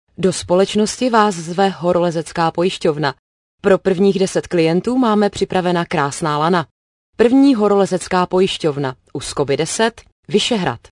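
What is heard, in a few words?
Female Czech IVR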